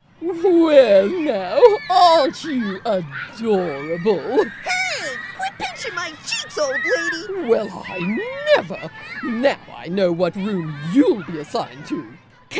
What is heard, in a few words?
Animation